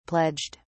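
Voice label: "pledged", British English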